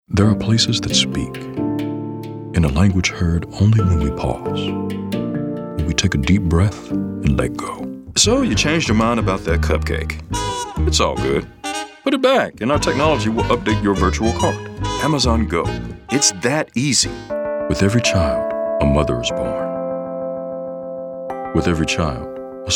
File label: Commercial, Male Voice Actor